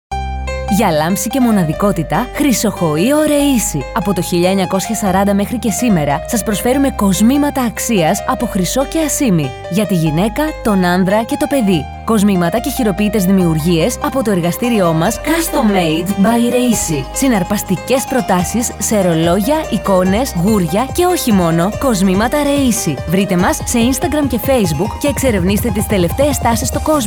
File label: FEMALE VOICE HYPER